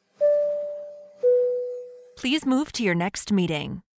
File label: airplane chime please move to your next meeting